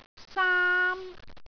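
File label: "saam1", Cantonese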